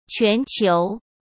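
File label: "全球", Mandarin Chinese; hsk; chinese-flashcards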